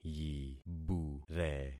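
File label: "yibure", concatenated syllables; Affixing experiment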